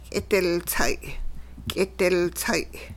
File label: we are drying something, hupa